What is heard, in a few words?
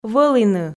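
"вӧліны", Komi, female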